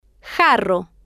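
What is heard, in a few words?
"jarro", Spanish, female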